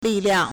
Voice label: "li4liang4", Chinese

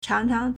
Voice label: "chang2chang2", Chinese

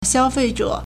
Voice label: "xiao1fei4zhe3", Chinese

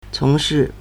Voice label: "cong2 shi4", Chinese

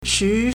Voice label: "chi2", Chinese

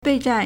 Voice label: "bei4zhan4", Chinese

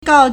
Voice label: "gao4", Chinese

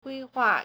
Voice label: "gui1hua4", Chinese

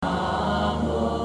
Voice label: "nánmó", Chinese; 南无 (南無); verb; namo; to pay respect to; homage to; In Buddhist chants

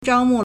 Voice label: "zhao1mu4", Chinese

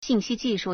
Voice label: "xin4xi2ji4shu4", Chinese

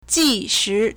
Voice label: "ji2 shi2", Chinese

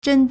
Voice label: "zhen1", Chinese

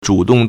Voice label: "zhu3 dong4", Chinese

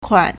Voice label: "kuan3", Chinese

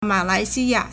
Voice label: "ma3lai2xi1ya4", Chinese

